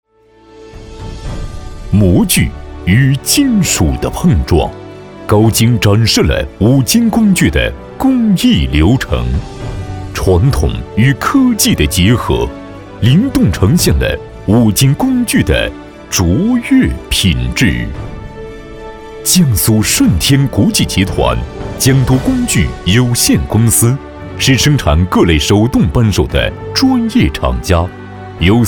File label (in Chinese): 男 专题 企业宣传专题 大气磅礴宣传片, 浑厚